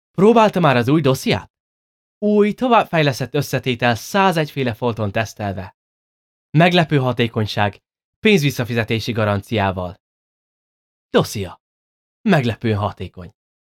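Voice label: Hungarian Commercial; GoLocalise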